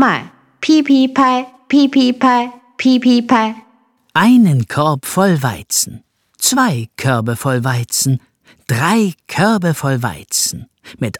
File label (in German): Chinesisch, kinderverse